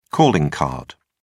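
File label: "calling card", British English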